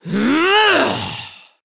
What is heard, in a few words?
Female Dracthyr Attack